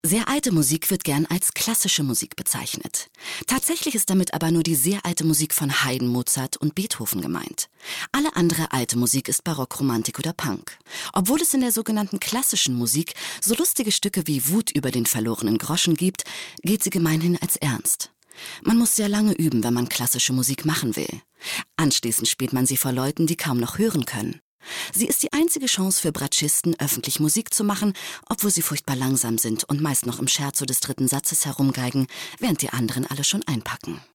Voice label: kommentar

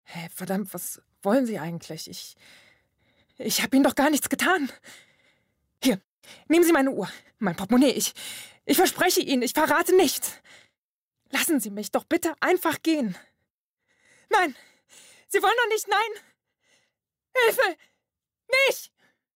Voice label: Aengstlich

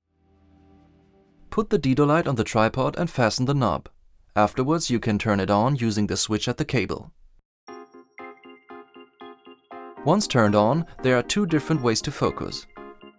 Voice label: Englisch Erklärvideo

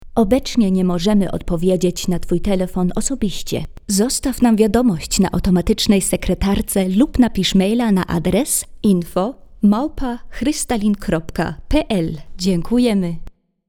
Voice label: Hautansage Telefon; Polska Doradztwa Podotkowego

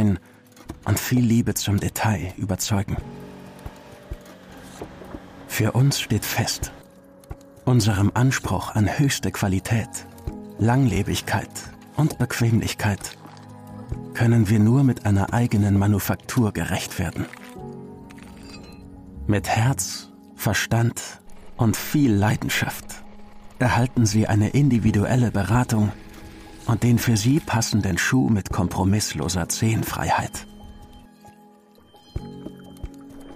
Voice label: Werbung Schuhe, Commercial (Werbung), German; Sterzinger Schuhe

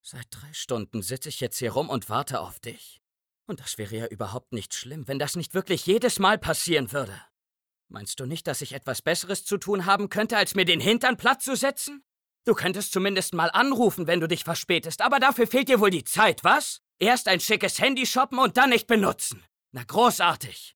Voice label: synchron wuetend

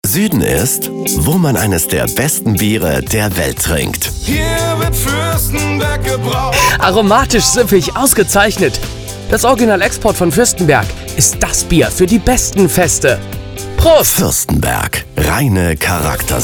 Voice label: Werbung Bier; Fürstenberg

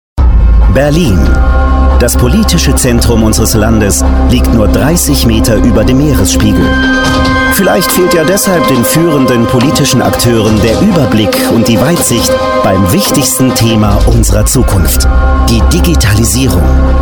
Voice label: Imagefilme serioes monumental markant maennlich; Gigagipfel Handelsblatt Vodafone Die Zeit

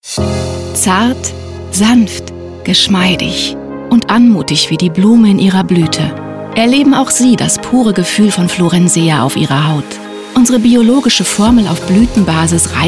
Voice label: Werbung Hautcreme

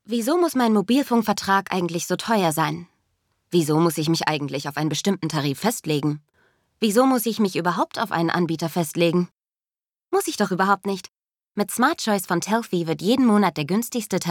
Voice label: werbung mobilfunk

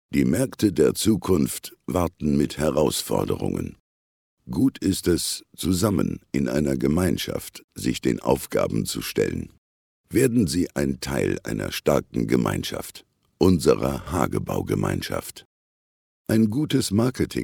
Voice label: aeltere stimme und reife stimme; LOFT VOICES

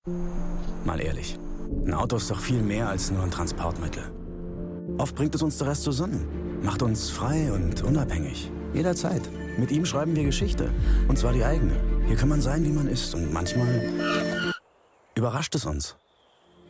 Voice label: TV Werbung; Ford Focus; LOFT VOICES

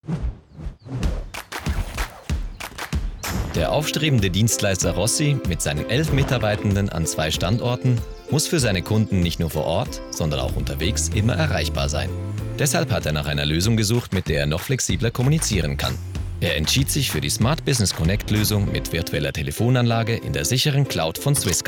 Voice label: Imagefilm SCHWEIZ; swisscom

